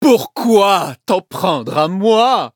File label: defeat, fr; Cookie Run